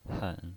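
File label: "hen", third tone, pinyin voice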